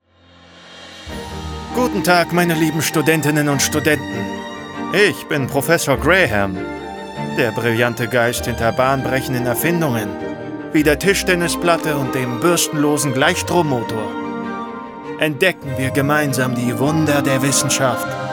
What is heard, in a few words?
Act Professor, Lip-Sync (Synchron), German